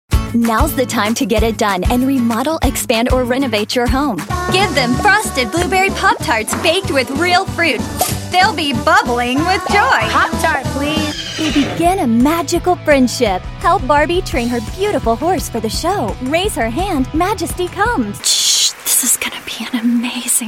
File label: commercial demo